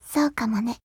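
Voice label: FEELING Japanese; 少女前线:P50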